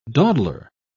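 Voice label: "dawdler", English, British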